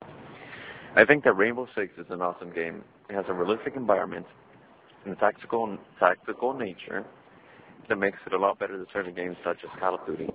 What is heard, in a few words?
Audio message